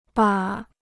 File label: "把", Chinese